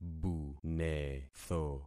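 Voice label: "bunetho", concatenated syllables; Affixing experiment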